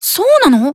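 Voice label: Voice Emotion Surprise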